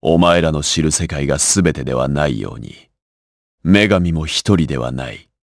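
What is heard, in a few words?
vox dia Japanese; Lusikiel; kingsraid; jp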